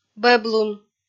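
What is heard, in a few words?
"бӧблун", Komi-Zyrian, female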